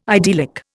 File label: "idyllic", Indian